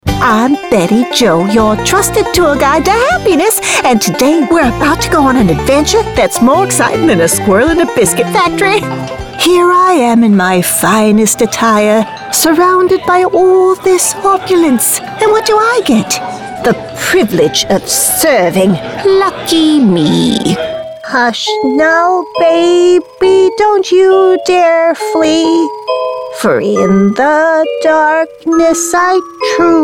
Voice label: Character Demo, Conversational, Friendly, Authentic Female Voice